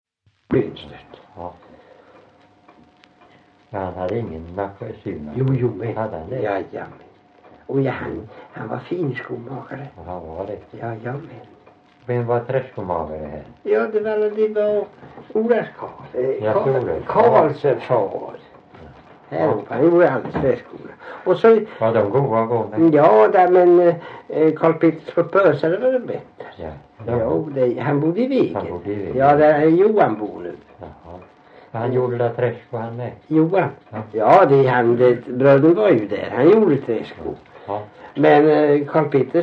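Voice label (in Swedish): Värö Halland, Svenska sagor, dialekter; Folkminnen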